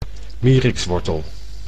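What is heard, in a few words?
"mierikswortel", Dutch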